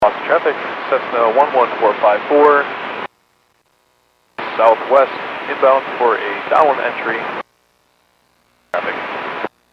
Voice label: Airports 122.7, Radio; KSTE